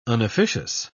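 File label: "unofficious", British English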